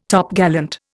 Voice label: "Topgallant", Indian

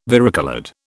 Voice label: "varicoloured", British

